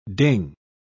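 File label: "ding", English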